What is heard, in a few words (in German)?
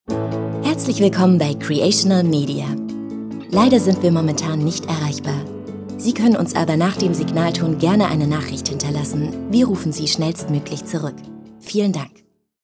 Telefonansage; Creational Media